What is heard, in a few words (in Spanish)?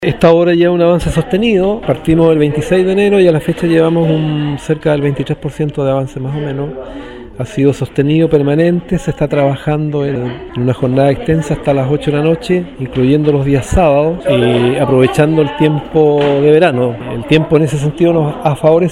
Cuña